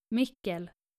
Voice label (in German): "mickel", nordische jungennamen